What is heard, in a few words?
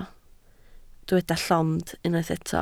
"llond", Welsh